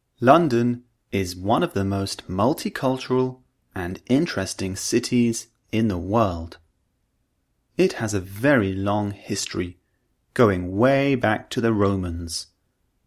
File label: Vowel Sounds, British English; Udemy - Perfect English Pronunciation British English